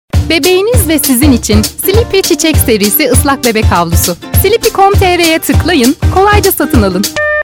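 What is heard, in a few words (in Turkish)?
reklam sleepy, Turkish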